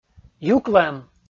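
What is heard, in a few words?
"юклӧм", Komi, male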